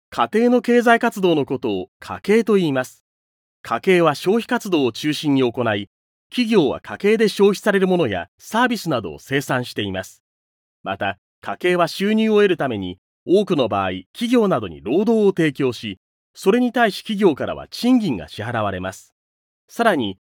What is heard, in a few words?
male